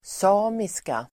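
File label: "samiska", Swedish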